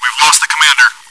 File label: chitchat, bot, voice commands; svencoop